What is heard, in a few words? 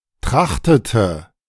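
"trachtete", German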